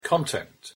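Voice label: "content" noun, English pronunciation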